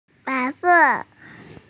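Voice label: "bai2se4", Mandarin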